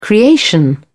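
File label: "creation", English, British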